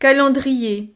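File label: "calendrier", French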